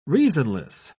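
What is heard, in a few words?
"reasonless", British English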